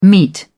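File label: "meat", British English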